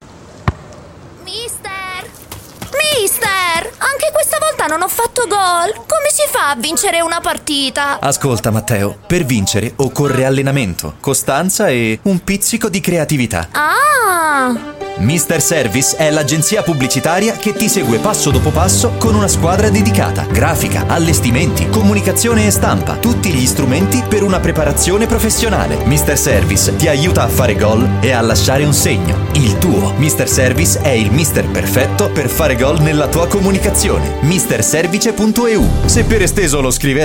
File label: Promo Radio